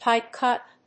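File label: "pipe cut", English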